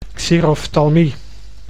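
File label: "xeroftalmie", Dutch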